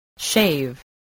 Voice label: "shave", spelling